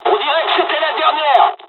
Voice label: dialog, dialogs french; War Thunder